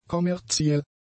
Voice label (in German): "kommerziell", German; adjectives